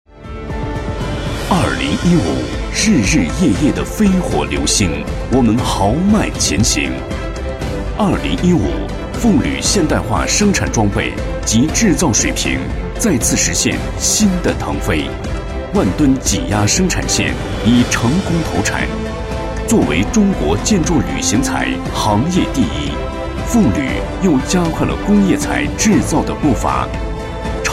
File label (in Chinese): 男-专题-铝材